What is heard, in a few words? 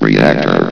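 "reactor", male synthesized announcer voice; hlvox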